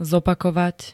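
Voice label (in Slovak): "zopakovať", Slovak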